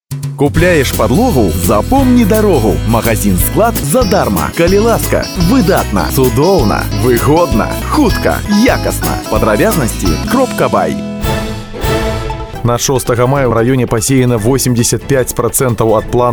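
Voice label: Belarusian language voice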